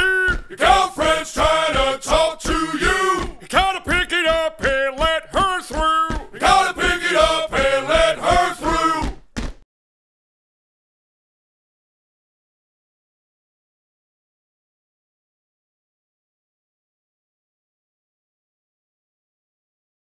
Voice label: Girlfriend Calling Drill Sergeant Ringtone